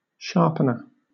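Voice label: "sharpener", British English; pronunciations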